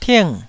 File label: "ting4", Taiwanese